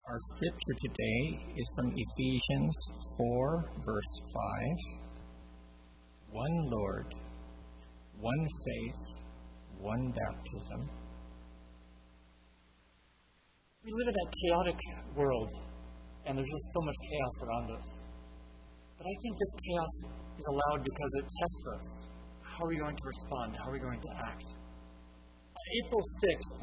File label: sermon; One Lord